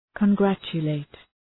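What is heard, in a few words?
"congratulate", English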